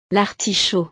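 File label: "l artichaut", French; verduras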